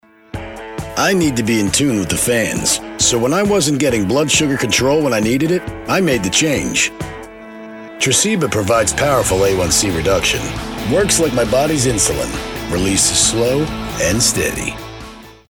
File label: Rock Concert